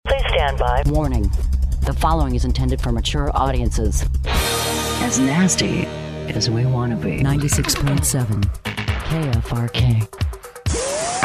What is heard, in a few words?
Imaging